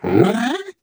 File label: ballbot confusion; Portal 2